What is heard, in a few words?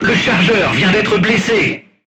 voice message charger wounded, crew tanks french